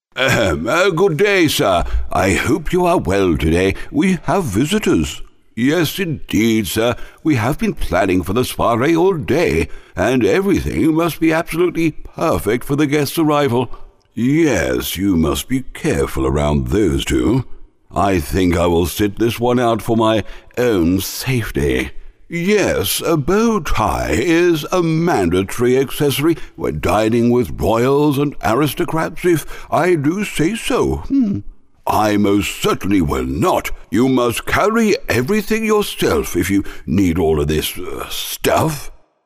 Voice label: BUTLER Voice